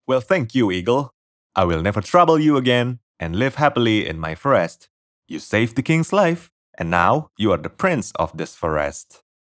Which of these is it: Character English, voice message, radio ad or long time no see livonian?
Character English